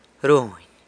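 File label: "runy", San Lucas Quiaviní Zapotec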